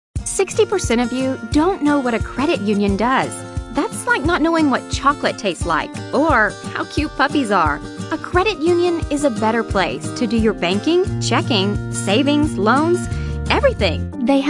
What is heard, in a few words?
Female American Accent